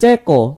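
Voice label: czech, Indonesian; gcompris-data; geography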